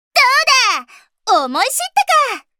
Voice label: Voice victory